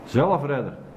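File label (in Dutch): "Zelfredder", dialect; Gasmasker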